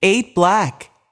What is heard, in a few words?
voice over, roulette; frenchroulette3